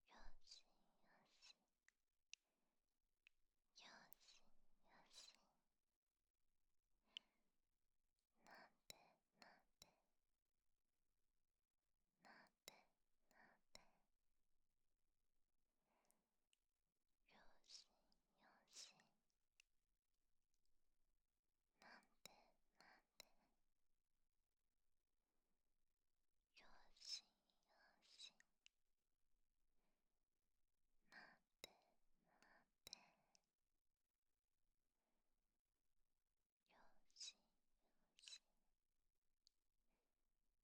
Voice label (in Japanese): よしよし (左耳); ちょっとだけバージョン